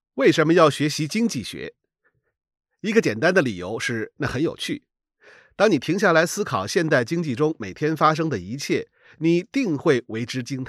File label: chinese mandarin audiobooks; Reino Unido; locutor nativo de mandarim; audiolivro; Tenho uma voz profunda, mas clara